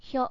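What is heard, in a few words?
"hyo", Japanese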